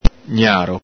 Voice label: "gnaro", Venetian

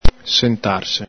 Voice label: "sentarse", Veneto

